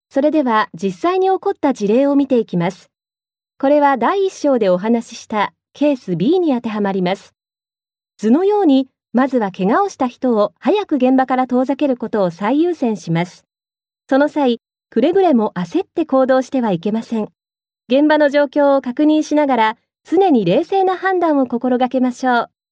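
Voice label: Japanese, female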